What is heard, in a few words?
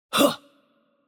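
Chinese attack light voice; bloom minigame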